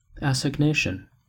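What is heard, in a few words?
"assignation" English, US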